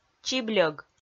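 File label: "чибльӧг", Komi, female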